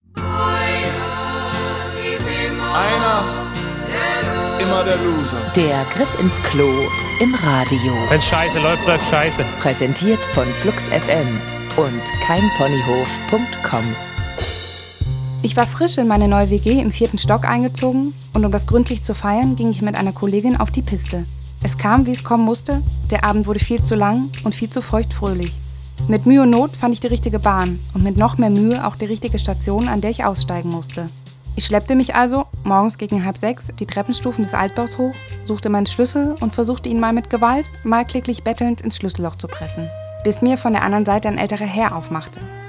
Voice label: female